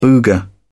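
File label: "booger", British English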